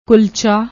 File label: "kulcha", Punjabi